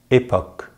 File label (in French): "époque", French; Consonantes en francés; easypronunciation